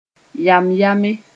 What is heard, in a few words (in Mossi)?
"yãm-yãme", moore